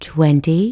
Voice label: announce voice "twenty"; BlastChamber PSX

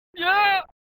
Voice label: male "Yeah"; MK2 ARC Unused

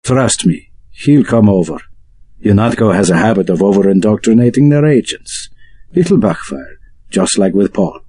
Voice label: Unused Airport VOIP Conversation

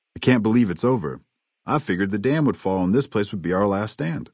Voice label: male adult greeting; Fnv

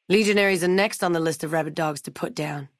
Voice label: female adult greeting; Fnv

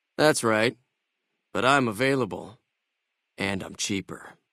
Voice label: male adult gambler asks; FNV

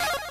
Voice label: Lucky Hit English; MLSS

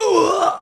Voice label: JP Voice; BH1; Chris

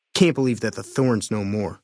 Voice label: male adult greeting

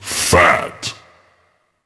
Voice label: Announcr

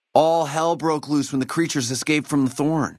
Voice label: male adult dialogue greeting; Fnv

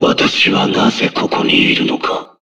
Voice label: Jap; SSB4; Mewtwo

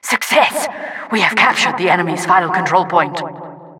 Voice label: announcer success captured final control

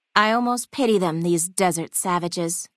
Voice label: female adult greeting

